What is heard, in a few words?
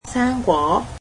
"fruits", Cantonese